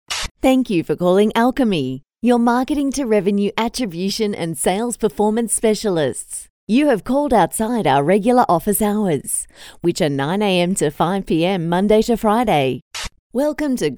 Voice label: ON HOLD